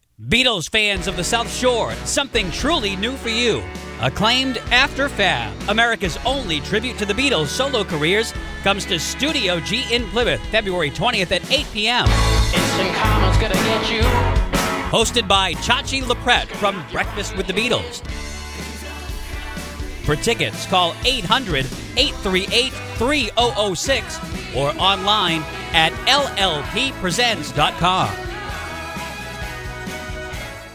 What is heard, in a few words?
radio spot; WPLM